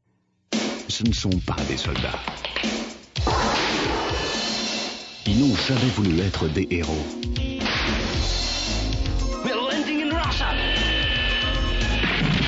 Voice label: french